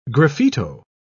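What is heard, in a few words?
"graffito", English, British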